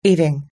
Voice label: "eating", us english

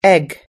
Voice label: "egg", us english

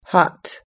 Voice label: "hot", us-english

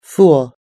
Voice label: "fool", us-english

